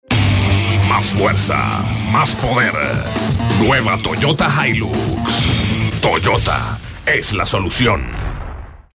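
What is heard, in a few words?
spanish latin venezuelan commercial hard, male; spansk-latin-amerika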